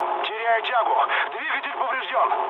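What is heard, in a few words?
Russian damaged engine mood low, speech; War Thunder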